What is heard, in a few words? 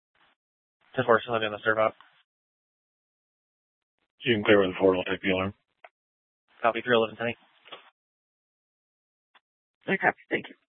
Dispatch; Scottsdale